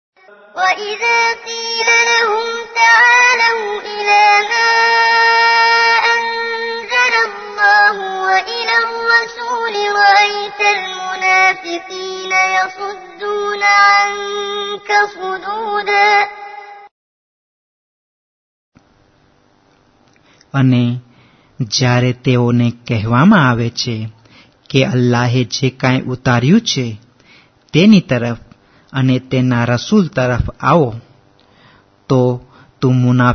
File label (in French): sourate nisa gujrati, CORAN